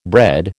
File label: "bread", American